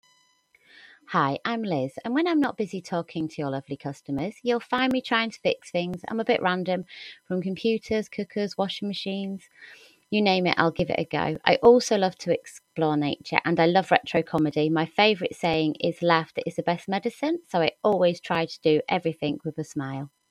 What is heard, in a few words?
audio Message